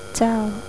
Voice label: "JAO", Isaan; เจ้า; You